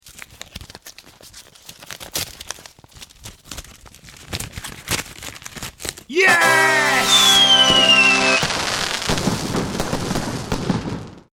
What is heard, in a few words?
Envelope opened and he word ‘Yes’ shouted in male voice with fanfare; Envelope opened and he word ‘Yes’ shouted in male voice with fanfare and fireworks.; Yelling; Good News Letter